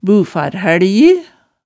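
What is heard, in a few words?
"bufarhælji", Hemsedal dialect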